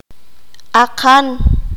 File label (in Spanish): "panal", qanjobal